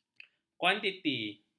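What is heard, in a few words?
"kuantiti", Malay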